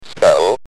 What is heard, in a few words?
"Spell", UK Speech